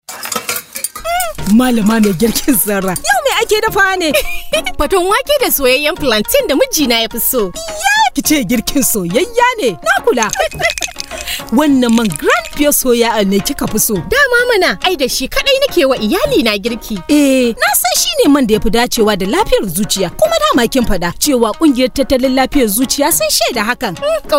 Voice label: Double Endorsement Hausa